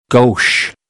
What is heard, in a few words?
"gauche", American; oʊ - əʊ